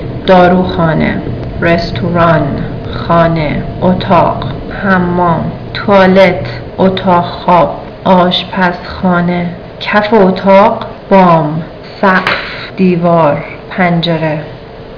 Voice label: farsi places

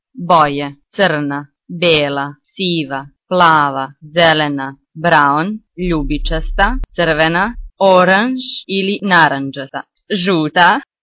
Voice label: croatian; colors

